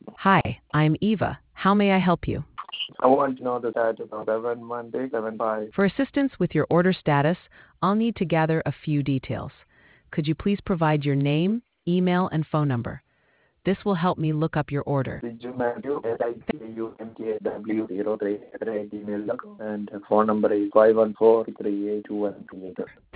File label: conversation